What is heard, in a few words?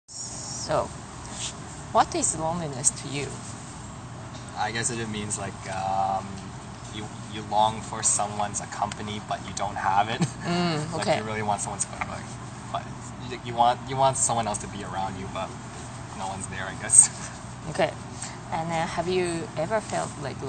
male 3rd year student asian